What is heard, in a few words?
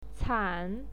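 "can", Mandarin Chinese, third tone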